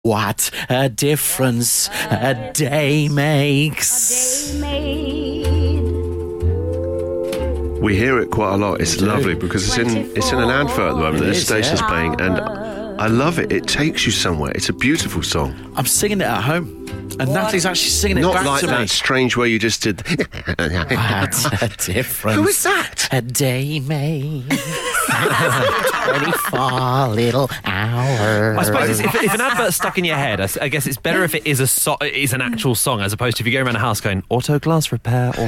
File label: Radio Mention Ad; Absolute Radio